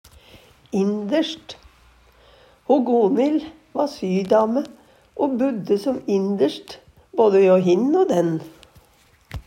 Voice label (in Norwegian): inderst, Numedalsmål